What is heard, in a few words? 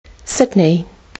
"sydney", Kiwi English